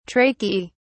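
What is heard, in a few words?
"tracheae", British English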